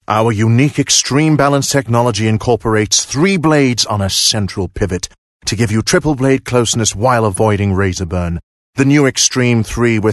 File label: English Dramatic